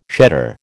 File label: "shedder", American